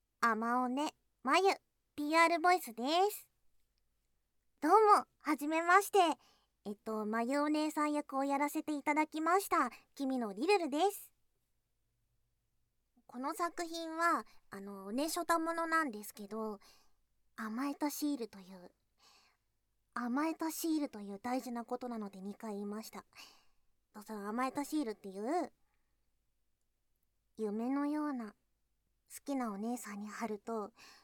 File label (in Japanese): PRボイス